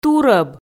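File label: "турӧб", Komi, female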